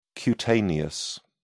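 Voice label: "cutaneous", British English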